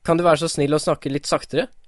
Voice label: slowly, norwegian